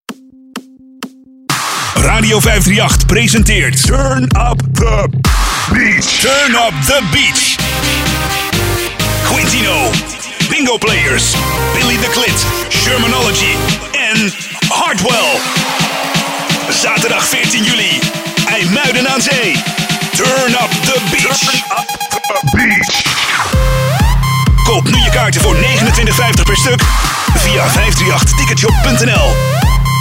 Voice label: commercial